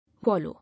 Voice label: "Wallow", English

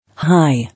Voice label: "High", English

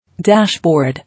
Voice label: "Dashboard", English

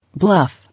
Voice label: "Bluff", English

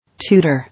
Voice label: "Tutor", English

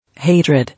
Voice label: "Hatred", English

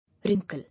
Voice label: "Wrinkle", English

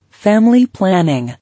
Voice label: "Family planning", English

